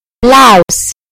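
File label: "blouse", English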